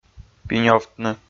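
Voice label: "пинёвтны", Komi, male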